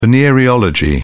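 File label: "venereology", British English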